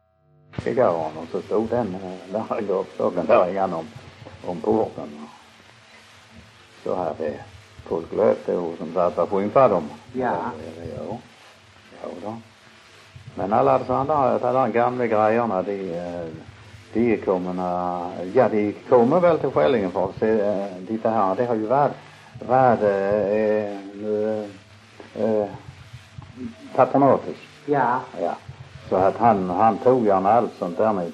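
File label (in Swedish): Munka-Ljungby Skåne, dialekter svenska sagor; Folkminnen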